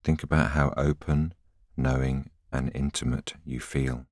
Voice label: voiceover